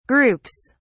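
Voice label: "groups", British English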